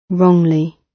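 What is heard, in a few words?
"wrongly", British English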